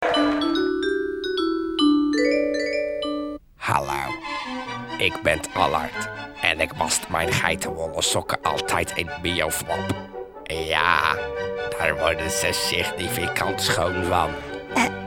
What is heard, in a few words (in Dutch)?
Reclame; bioflap